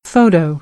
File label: "photo", American English